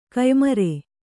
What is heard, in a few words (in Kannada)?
"ಕಯ್ಮರೆ", Kannada